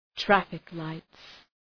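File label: "traffic lights", English; (Ουσιαστικό)● φανάρια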